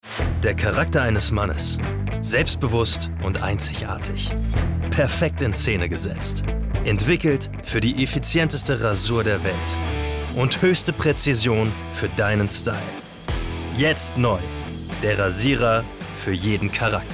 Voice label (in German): Werbung Rasierer, Commercial, German